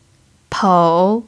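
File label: "pou" third tone, chinese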